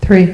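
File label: "three", spoken; Anthem 1.04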